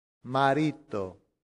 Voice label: "marito", latin; santillana

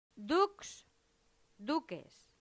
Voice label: "dux duces", latin; santillana

